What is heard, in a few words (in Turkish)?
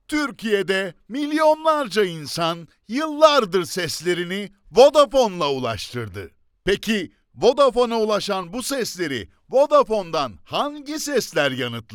Türkçe, Erkek Orta Yaş, Reklam; vodafone